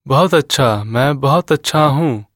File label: Conversation